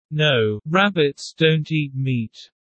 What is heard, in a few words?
low; Animal friends